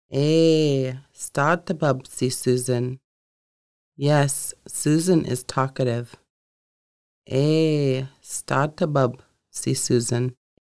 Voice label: Yes Susan is talkative, conversational Lushootseed